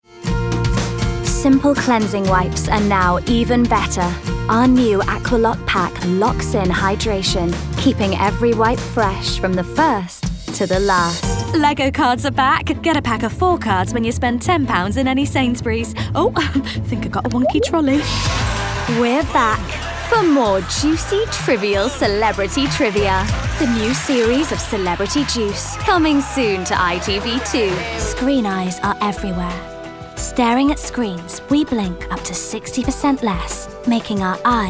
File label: rp